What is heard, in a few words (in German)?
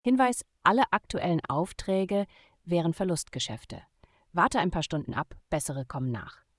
all negative hint, voice de; logistik